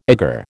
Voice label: "egger", American

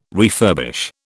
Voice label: "refurbish", British

